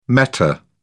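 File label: "meta", English, British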